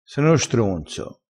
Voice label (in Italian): "stronzo", crespinese